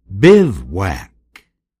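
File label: "bivouac", English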